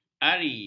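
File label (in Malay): "ari", Malay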